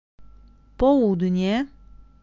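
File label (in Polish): "południe", Polish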